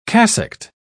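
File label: "cassocked", British English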